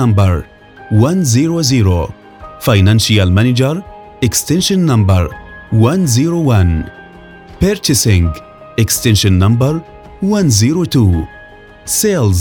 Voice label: Arabic Voice Over phone welcome